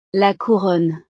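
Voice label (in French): "la couronne", French; navidad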